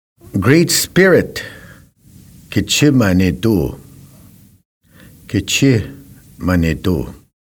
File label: Great Spirit Gichi Manidoo Narrated